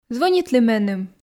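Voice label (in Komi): "звӧнитлы меным", Komi, female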